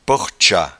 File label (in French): "portchat", patois jura